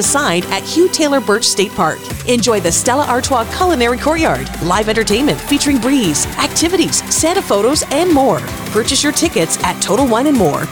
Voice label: spot female read; Grandstand; MIAMI